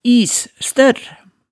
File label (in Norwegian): "is-størr", hemsedalsmaalet